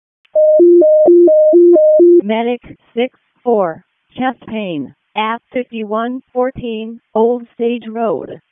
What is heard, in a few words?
EMS ALERT